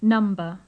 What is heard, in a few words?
"number", English